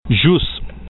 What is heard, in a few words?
"jxus", esperanto